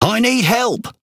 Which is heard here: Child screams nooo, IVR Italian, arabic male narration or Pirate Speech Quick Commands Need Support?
Pirate Speech Quick Commands Need Support